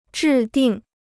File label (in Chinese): "制定", Chinese